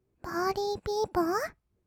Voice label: "ぱーりーぴーぽー", kawaii voice; ASMR; 1200 kawaii voice!!; 音ゲー

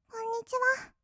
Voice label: "こんにちは", kawaii voice; ASMR; 挨拶; 1200 kawaii voice!!

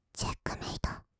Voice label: チェックメイト, kawaii voice; ASMR; 1200 kawaii voice!!; 音ゲー

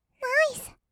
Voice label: "ナイス", kawaii voice; ASMR; 色々なワンショット; 1200 kawaii voice!!

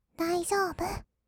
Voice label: "だいじょーぶ！", kawaii voice; ASMR; 色々なワンショット

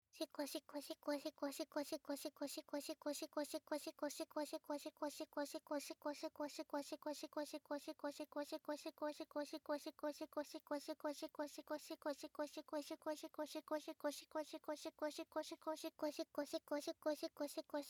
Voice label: しこしこボイス 高速, 汎用エロボイス; おまけ音声（汎用指示ボイス・汎用エロボイス）

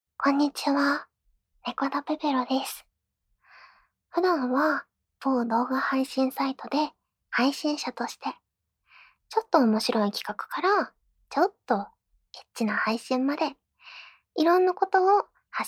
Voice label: インタビュー; ガチ実演!ガチイキ!いろんなおもちゃで気持ちよくなってみた

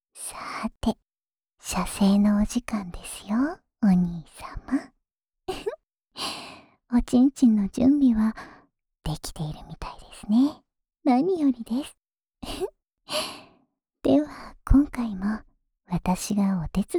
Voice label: シコシコボイスループ（右耳）, ASMR; オナサポ用

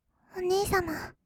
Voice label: "お姉様", kawaii voice; ASMR; 二人称; 1200 kawaii voice!!

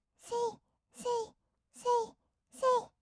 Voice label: せいせい, kawaii voice; ASMR; 合いの手; 1200 kawaii voice!!

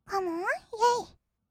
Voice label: カモン イェイ, kawaii voice; 音ゲー

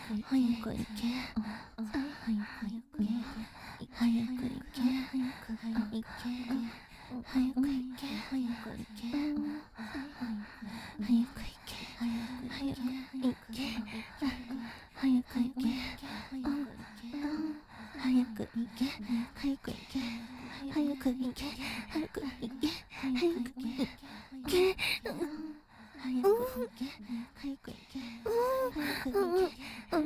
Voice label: 早く逝け連呼エコー; マンコで屈服させようとしてくる彼女

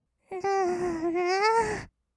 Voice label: kawaii voice; ASMR; 色々なワンショット

